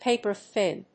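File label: "paper-thin", English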